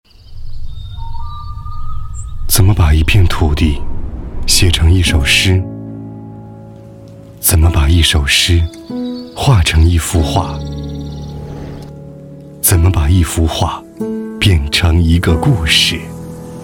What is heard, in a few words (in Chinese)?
男 诗画浙江; CCTV; 浙江形象